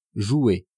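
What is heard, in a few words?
"jouer", French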